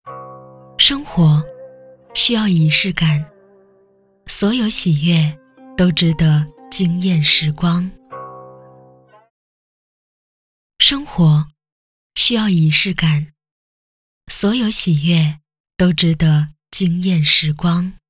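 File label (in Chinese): 广告高端质感房地产; 云洲熙府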